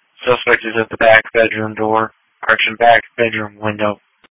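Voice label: Police Patrol Dispatch; New Bern Police Patrol 1 (Dispatch) (TG 200)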